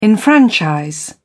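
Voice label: "enfranchise", British English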